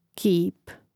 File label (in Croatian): "kip", Hrvatski; Hrvatski mrežni rječnik; imenica; Mrežnik